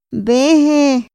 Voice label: "mbehe", Otomí; INPI

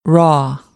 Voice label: "raw", American English; ɑː - ɔː

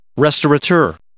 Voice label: "restaurateur", English

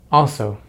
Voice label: "also", English; Consonants in American English; easypronunciation

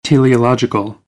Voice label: "teleological", American, English; ɑː - ɒ

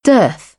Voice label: "dearth", British; ɝː - ɜː; English

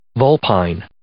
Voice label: "vulpine", English

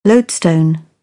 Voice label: "lodestone", British; oʊ - əʊ; English

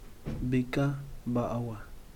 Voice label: "pus", Yaqui

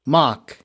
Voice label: "mock", English; verb

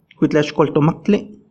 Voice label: "kuitlaxkoltomaktli", Náhuatl; intestino grueso; large intestine

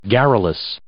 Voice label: "garrulous", English

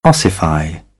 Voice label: "ossify", British; AmericanBritish

